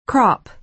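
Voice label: "crop", American English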